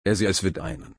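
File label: "einen", German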